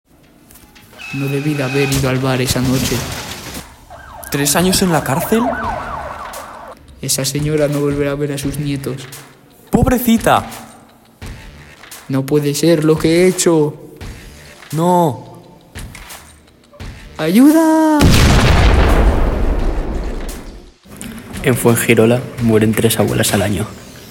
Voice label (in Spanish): anuncio